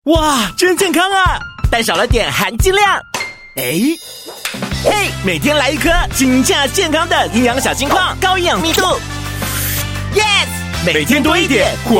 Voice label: Taiwanese